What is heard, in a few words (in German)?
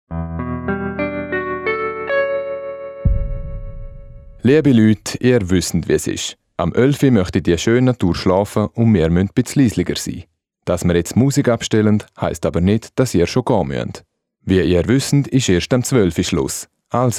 Durchsage